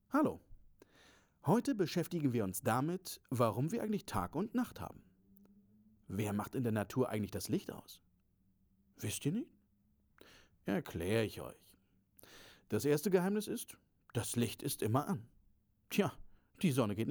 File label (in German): Erklärfilm